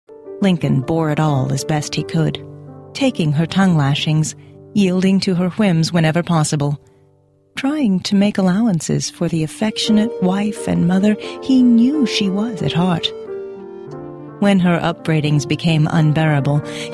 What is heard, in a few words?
History Channel Style Documentary